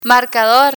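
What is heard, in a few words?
"marcador", Spanish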